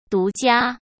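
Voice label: "独家", Mandarin Chinese; chinese-flashcards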